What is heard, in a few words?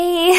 "hey" female voice